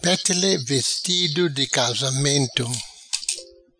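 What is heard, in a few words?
"Betele Vestido Di Casamento", patua; um mimo overecido antigamente aos convidados em casamentos, que consistia em folhas de bétele dobradas artistifamente ao redor de pedaços de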